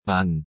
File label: "안", korean